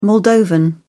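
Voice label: "moldovan", British English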